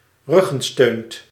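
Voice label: "ruggensteunt", Dutch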